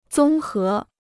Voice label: "综合", Chinese

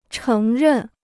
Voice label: "承认", Chinese

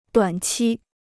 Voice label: "短期", Chinese

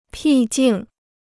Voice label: "僻静", Chinese

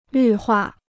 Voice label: "绿化", Chinese

